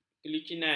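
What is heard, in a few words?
"kelicinan", Malay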